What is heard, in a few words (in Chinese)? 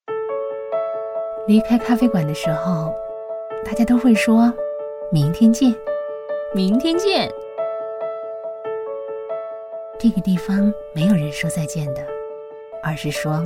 广告 咖啡馆; 左岸咖啡馆